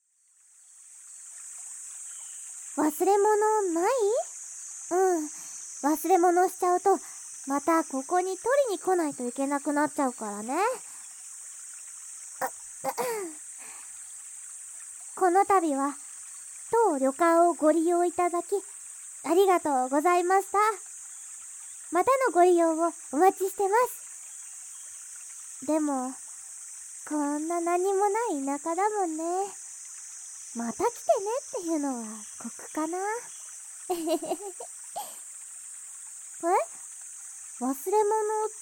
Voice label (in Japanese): 添い寝 ピロートーク, ASMR; 夏恋その弐; あさひ庵で夏恋 癒し耳かき音声 癒しの3本セット！